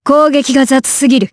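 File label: voice Skill, voices heroes, Japanese; Ripine; kingsraid audio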